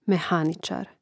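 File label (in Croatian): "mehanicar", Croatian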